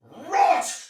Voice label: Attack, Soldier Male; Sinecure